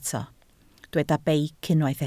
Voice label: "beic", Welsh